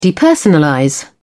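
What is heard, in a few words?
"depersonalize", British English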